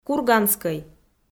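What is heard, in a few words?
"курганскӧй", Komi, female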